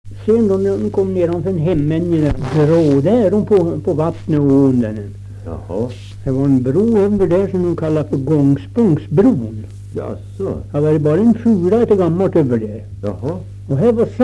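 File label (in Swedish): Österfärnebo Gästrikland, Svenska sagor, dialekter; Folkminnen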